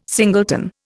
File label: "singleton", Indian